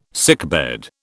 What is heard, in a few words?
"sickbed", British